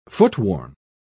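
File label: "footworn", en, uk British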